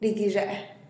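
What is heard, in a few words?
"rigizhe'e", Teotitlán del Valle Zapotec; tell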